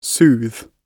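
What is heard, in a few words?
"soothe", British English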